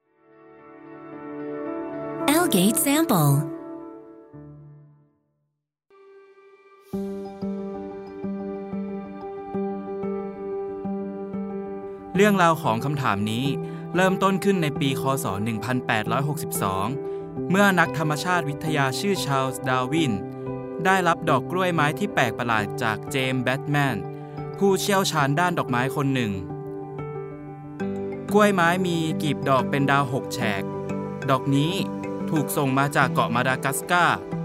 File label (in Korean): 태국 남 밝은 톤